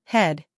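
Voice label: "head", American English, female; everyone-can-use-english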